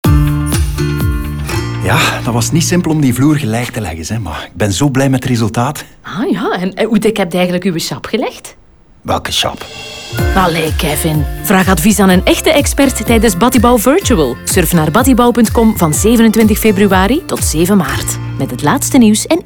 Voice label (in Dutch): Radio NL Spot; Batibouw; Spons HLNieuws